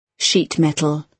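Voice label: "sheet metal", British English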